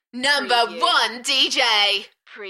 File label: UK female Enthusiastic; beanstalk-audio